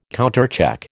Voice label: "countercheck", American